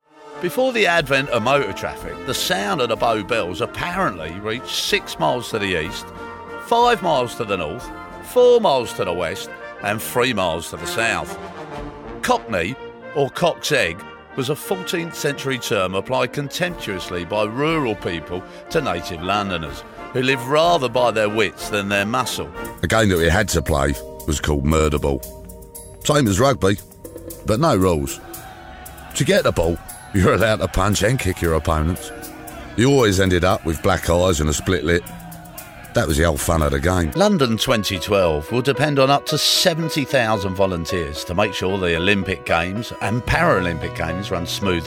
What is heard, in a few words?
Narration